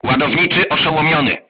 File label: voice message charger contused, crew tanks polish